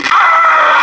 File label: hurt med; cloaker